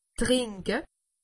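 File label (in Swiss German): "drinke", Alsatian; medecine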